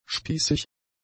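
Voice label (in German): "spießig", german; adjectives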